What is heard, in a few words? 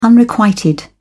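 "unrequited", English, British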